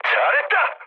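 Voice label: Korean bomb success mood med, speech; War Thunder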